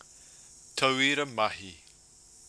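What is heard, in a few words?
"tauira mahi", Māori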